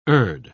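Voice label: "urd", British English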